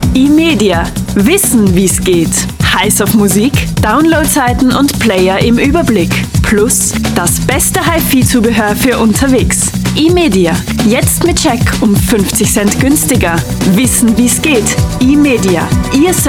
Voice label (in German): Werbung